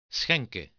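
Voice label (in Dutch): "schenken", Dutch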